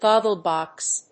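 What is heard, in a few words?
"goggle-box", English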